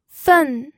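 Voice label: "fen", pinyin, fourth tone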